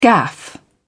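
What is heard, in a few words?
"gaffe", British English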